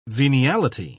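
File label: "veniality", British English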